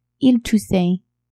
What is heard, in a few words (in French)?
"ils toussaient", French; tousser